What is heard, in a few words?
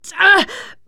horde attack, teen girl; player; survivor; voice